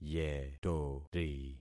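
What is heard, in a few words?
"yetori", concatenated syllables; Affixing experiment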